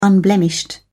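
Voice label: "unblemished", British English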